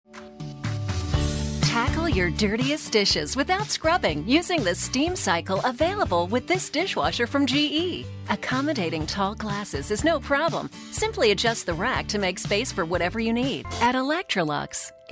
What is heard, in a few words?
Explainers